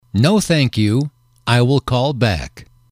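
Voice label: "no thank you I will call back later", English, male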